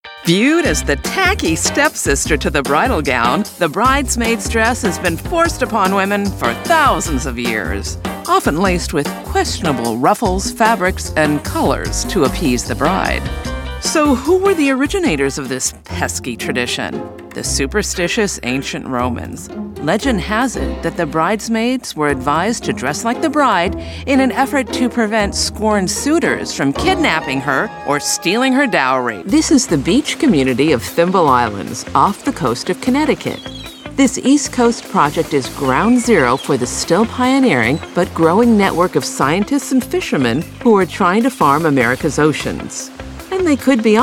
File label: Narration American woman